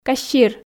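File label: "кассир", Komi, female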